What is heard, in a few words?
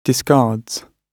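"discards", British English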